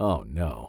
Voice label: Voices Male Discovery Oh No; Funky Rustic; FunkyVoices